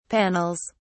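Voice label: "panels", British English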